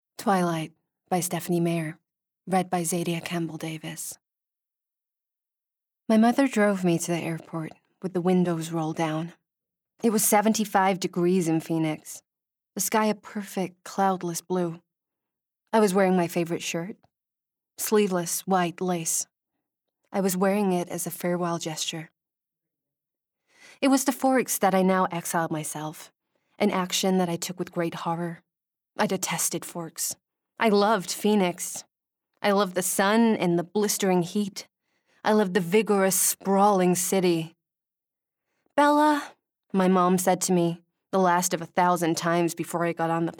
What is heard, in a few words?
Bookread US; Twilight (US accent)